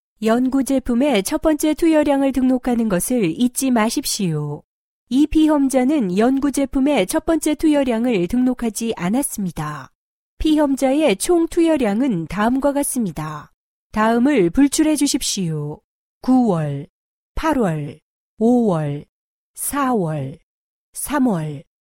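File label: Korean IVR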